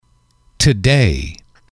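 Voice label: "today", English, male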